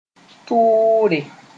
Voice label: "tʋʋre", moore; an insult

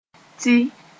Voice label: "tɩ", Moore; for

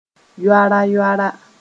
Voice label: "yoara-yoara", Mooré

